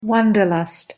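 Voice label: "wanderlust", English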